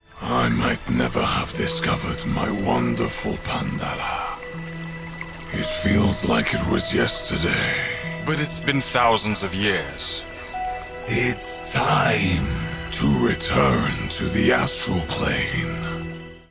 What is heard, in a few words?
Mighty Dragon, French Voice Actor